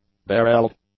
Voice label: "barelled", English; adjectives

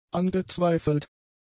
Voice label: "angezweifelt", German; adjectives

